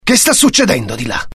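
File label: curious, Italian; mohaa; dialogue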